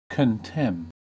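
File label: "contemn", American English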